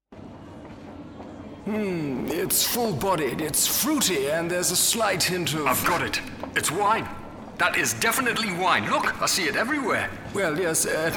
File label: Commercial; Sainsbury's